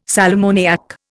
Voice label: "sal ammoniac", Indian

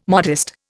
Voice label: "modest", Indian

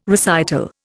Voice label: "recital", Indian

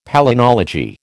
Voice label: "palynology", American

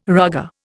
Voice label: "rugger", Indian

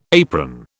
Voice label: "apron", British

